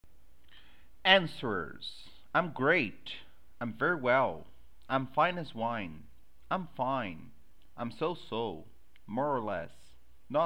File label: Simple Conversation; english course module 01